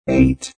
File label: Robot Voice "0"